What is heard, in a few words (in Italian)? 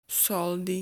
"Soldi", Italian